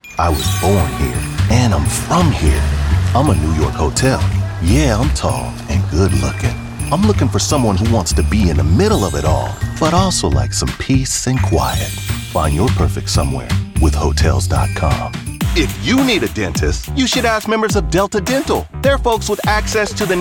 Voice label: COMMERCIAL